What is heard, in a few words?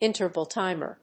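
"interval-timer", English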